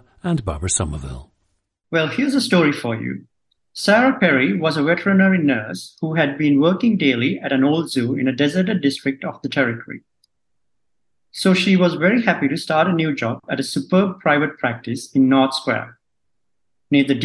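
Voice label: sri lanka, dialects of English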